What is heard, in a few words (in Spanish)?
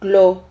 "klo", Silabas